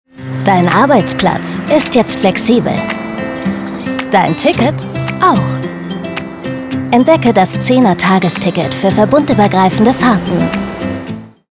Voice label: Werbung Flexibler Arbeitsplatz; Deutsche Bahn

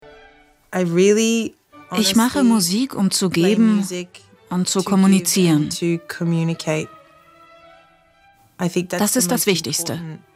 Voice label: Doku; arte

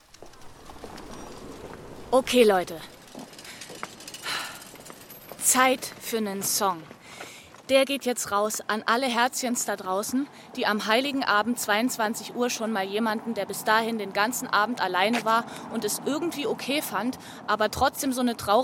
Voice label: Monolog; LOFT VOICES

